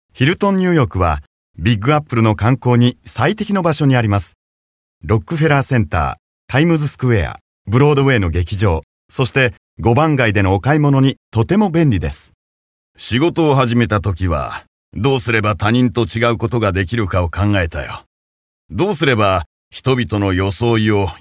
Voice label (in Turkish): Japonca Erkek, seslendirme